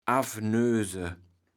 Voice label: "affnöse", kaevels platt

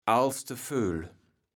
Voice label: "alstevöl", kaevels platt

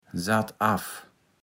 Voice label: "sattaff", Platt

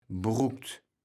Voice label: "brukt", kaevels platt

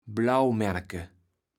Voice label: "Blauwmänneke", kaevels platt

